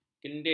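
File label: "kendil", Malay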